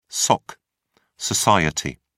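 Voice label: "soc", British English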